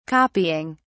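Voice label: "copying", British English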